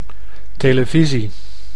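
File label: "televisie", Dutch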